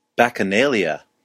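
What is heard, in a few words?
"bacchanalia", American English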